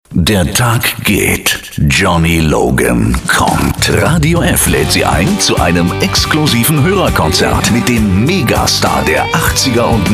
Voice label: stationvoice RADIOF PROMO